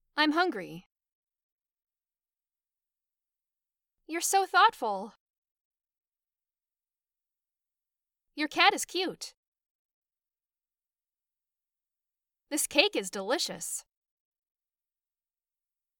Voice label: Sentences Natural